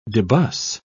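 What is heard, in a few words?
"debus", English, British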